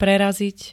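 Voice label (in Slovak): "prerazit", Slovak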